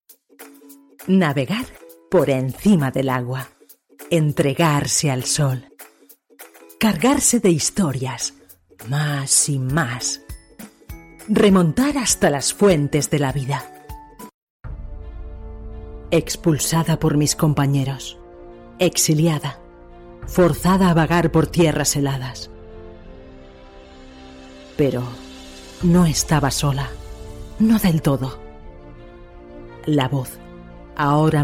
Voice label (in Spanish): ES, locutora